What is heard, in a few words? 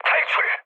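Korean bailout mood med; War Thunder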